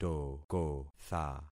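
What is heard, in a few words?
"tokotha", concatenated syllables; Affixing experiment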